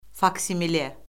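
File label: "faksimile", Azerbaijani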